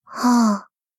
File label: sad